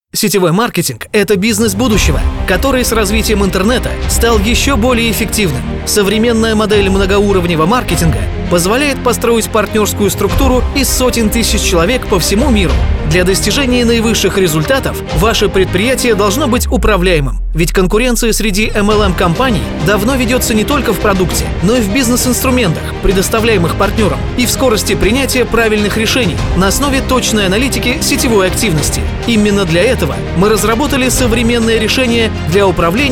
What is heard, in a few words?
russian corporate videos